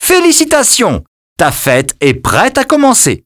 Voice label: startparty vo, French

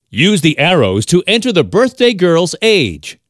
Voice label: age girl; Birthday Party Bash; Wii

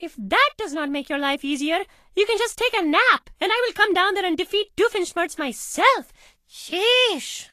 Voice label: English Voice

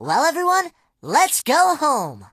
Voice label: English Voice End; Disney; Phineas and Ferb; The Transportinators of Doooom

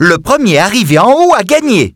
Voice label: French - dialogue, Expressions; Rock Climber

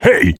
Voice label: "Hey", Male; Blastmorph Pinball; xbox series xs

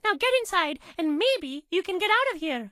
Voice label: English Voice

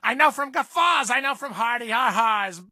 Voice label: English Voice

